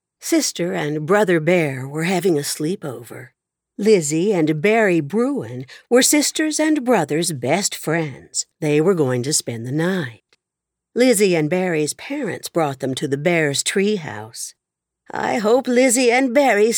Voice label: Childrens Fiction multiple voices